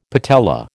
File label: "patella", American